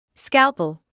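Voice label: "scalpel", British English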